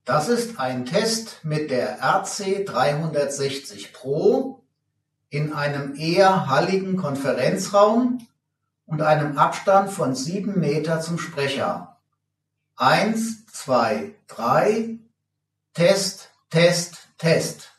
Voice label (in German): RC360Pro 7m Aufnahme, mikrofon test sprachqualitaet